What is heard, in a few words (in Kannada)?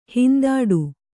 "ಹಿಂದಡಿ", Kannada